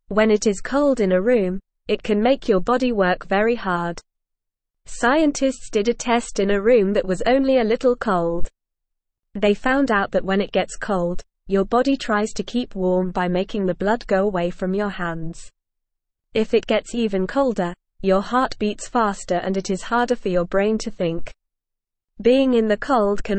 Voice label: English Beginner NORMAL Reading; Why Being Cold is Hard for Your Body; English Newsroom

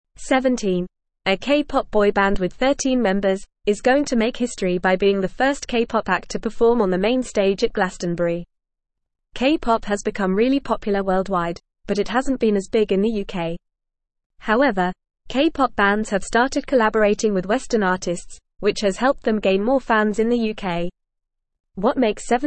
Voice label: English FAST Reading

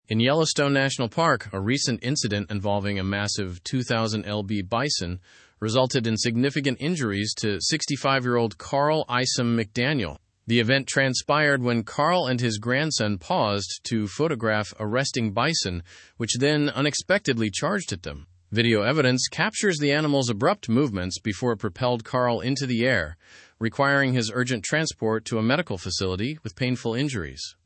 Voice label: learn english through news advanced fast; elderly man injured by bison in yellowstone

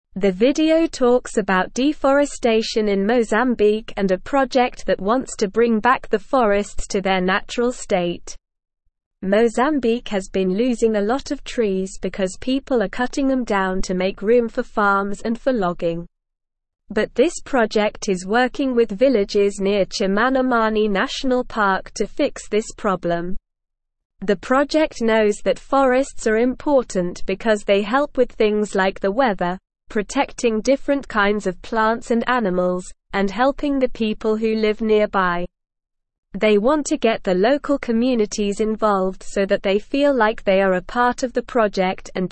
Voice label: English SLOW Reading; Restoring Mozambiques Forests A Sustainable Partner

